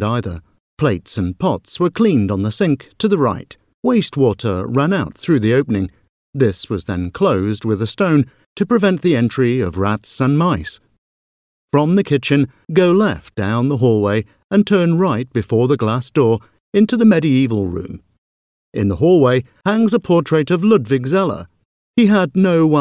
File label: voiceover narrative audioguide museum exhibits; waldensian